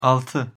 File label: "alti", Turkish